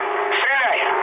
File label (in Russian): attack position, mood low, speech ru; War Thunder